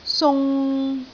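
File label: "sung3", Cantonese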